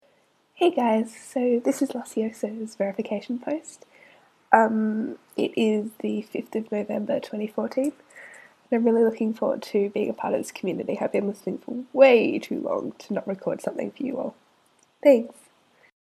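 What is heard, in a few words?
Verification, female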